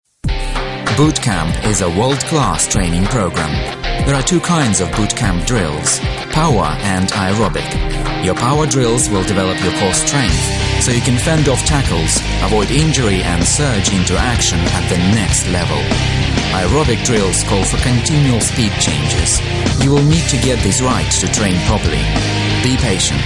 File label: English Voice; Nike